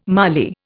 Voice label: "mali", Indian